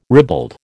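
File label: "ribald", American English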